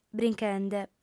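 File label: "brinchende", lingua sarda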